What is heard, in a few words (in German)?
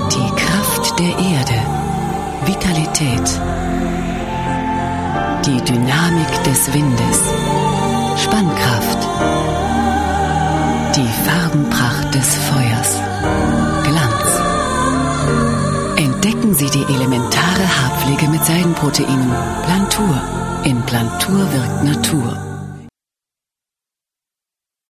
Fremdtake Sanft